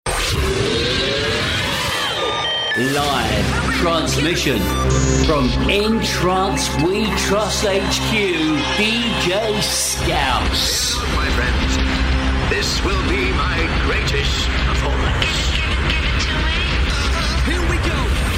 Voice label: english british promos